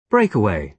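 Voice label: "breakaway", British English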